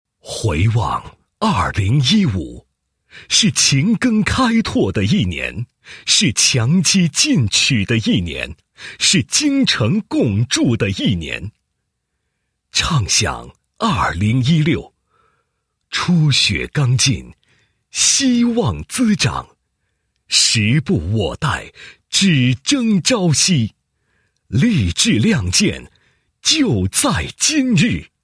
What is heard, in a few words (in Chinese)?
男 开幕词 动员会; 邮储银行个人金融业务旺季营销动员会